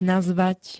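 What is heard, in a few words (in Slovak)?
"nazvať", Slovak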